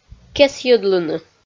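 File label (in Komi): "кесйӧдлыны", Komi, female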